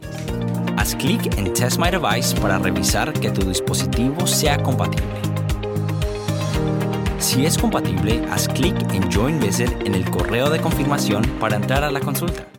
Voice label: spanish latin american e-learning